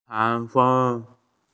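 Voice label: "typhoon", Mandarin; tai2feng1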